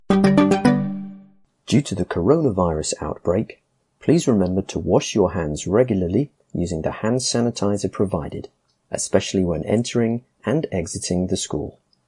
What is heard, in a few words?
Schools coronavirus wash hands with jingle male voice